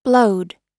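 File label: "blowed", American English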